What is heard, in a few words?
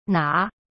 "拿", Chinese; cyberchinese